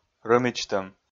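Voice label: "рӧмидзтӧм", Komi, male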